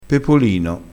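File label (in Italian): "pepolino", dialettale crespinese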